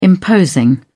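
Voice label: "imposing", British English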